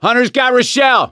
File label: hunter pounced, survivor voice; Left 4 Dead 2; gambler